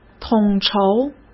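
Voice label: "统筹", Mandarin Chinese; chinese-flashcards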